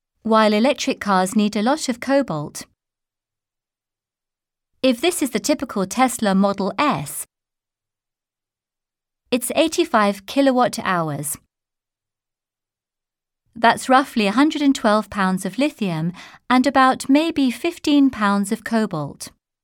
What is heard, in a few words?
news, british english